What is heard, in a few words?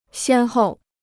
"先后", Chinese